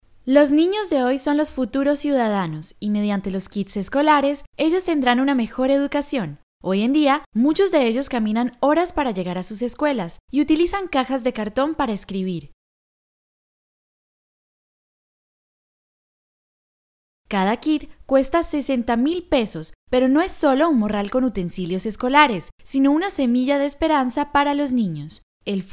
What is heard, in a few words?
Spanish Latin America